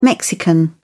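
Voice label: "mexican", British English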